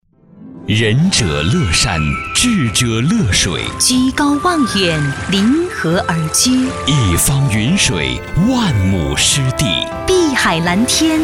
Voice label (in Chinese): 女 广告 房产 古典大气